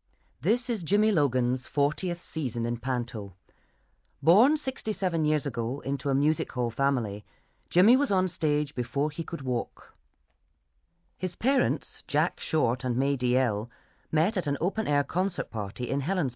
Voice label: Documentary

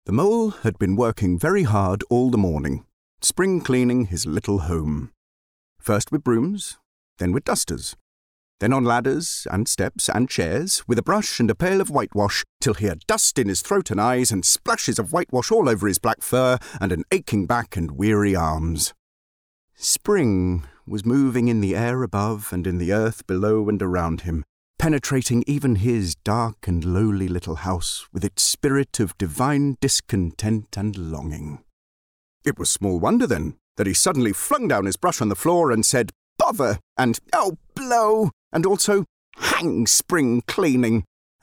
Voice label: Narration; Wind in The Willows

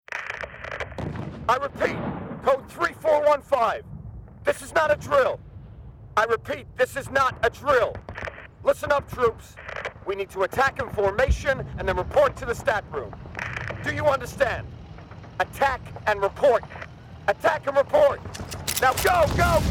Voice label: VO Character American

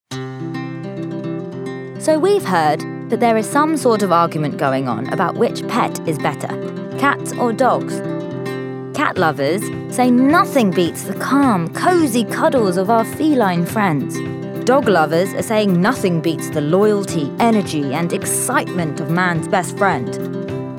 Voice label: Commercial

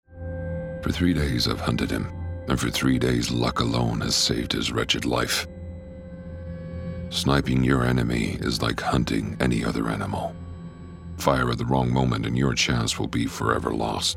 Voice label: Voicetape Gaming; Call Of Duty

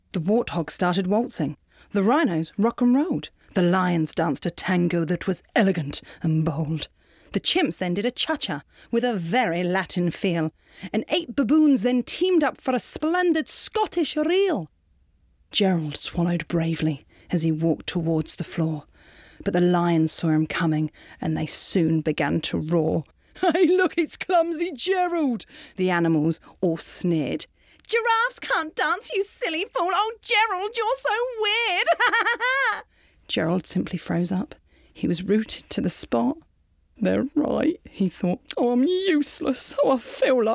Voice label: Audiobook

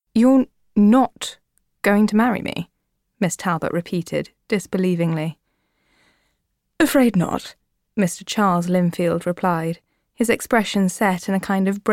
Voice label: Audiobook

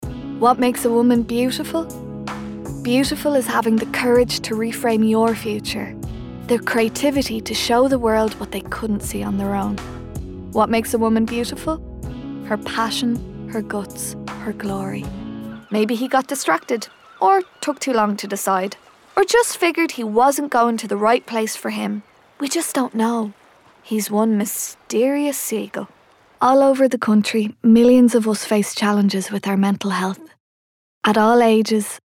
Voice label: Commercial; Natural Accent: Irish

